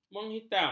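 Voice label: "menghitam", Malay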